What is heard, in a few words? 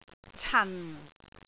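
"can4", Cantonese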